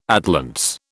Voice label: "atlantes", British

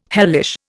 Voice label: "hellish", Indian

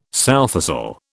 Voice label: "sowthistle", British

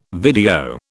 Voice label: "video", British

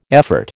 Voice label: "effort", American

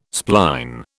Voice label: "spline", British

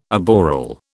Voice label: "aboral", British

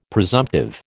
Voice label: "presumptive", American

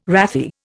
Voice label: "wrathy", Indian

